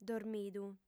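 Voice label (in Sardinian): "dormidu", female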